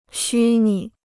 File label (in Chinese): "虚拟", Chinese